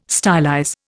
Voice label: "stylize", Indian